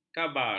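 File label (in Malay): "khabar", Malay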